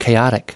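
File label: "chaotic", US English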